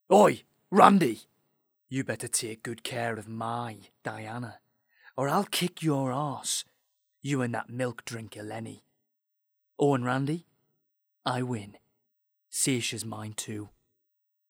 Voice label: Voice Over